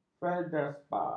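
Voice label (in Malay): "feldspar", Malay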